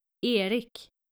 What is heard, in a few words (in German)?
"erik", nordische jungennamen